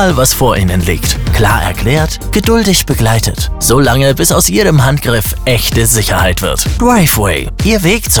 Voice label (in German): Beispielspot Fahrschule